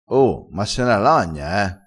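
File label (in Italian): "lagna", crespinese dialettale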